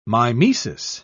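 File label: "mimesis", British English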